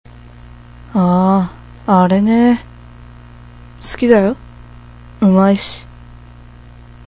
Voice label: love m

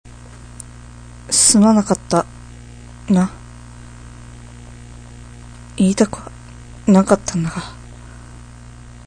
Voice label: sorry m

